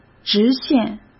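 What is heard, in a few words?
"直线", Mandarin Chinese; hsk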